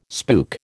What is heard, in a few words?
"spook", American